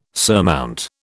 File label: "surmount", British